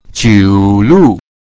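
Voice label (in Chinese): "jiu lu", Mandarin Chinese pinyin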